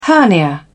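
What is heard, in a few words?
"hernia", British English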